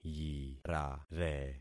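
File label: "yirare", concatenated syllables; Affixing experiment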